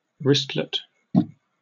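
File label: "wristlet", British English; pronunciations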